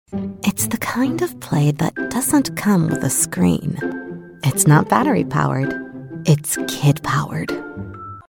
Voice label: Curious and playful, commercial